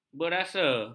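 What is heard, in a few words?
"berasa", Malay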